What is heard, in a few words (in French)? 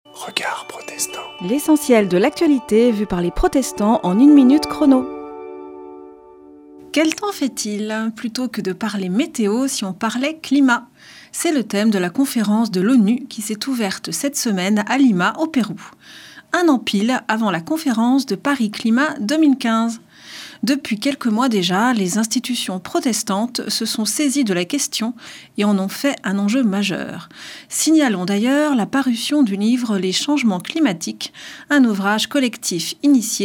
Spot radio; Regards protestants